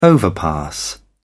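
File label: "overpass", British English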